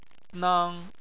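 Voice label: "nang", Chinese, first tone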